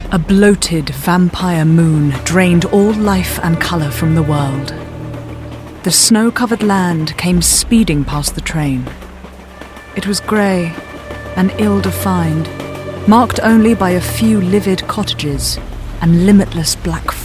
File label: Narration